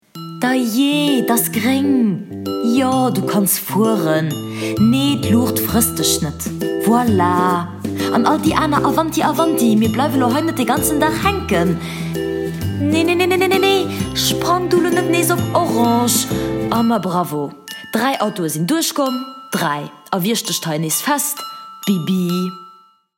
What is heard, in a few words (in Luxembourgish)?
Werbung Letzeboiesch